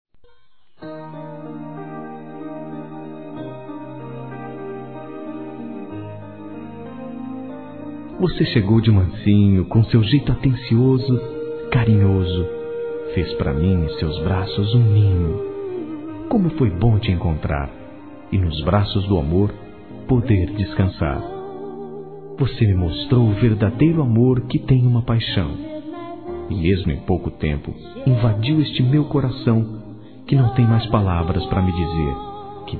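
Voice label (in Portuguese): romantico, Telemensagens, Românticas masculino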